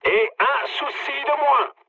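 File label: French player killed enemy mood high; War Thunder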